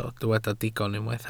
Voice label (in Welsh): "digon", Welsh